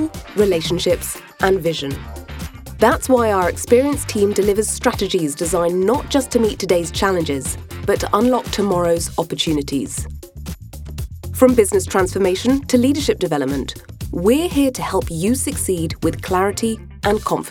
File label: UK English Consulting; Stratos